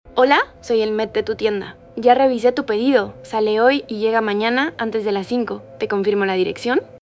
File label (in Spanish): adulta calida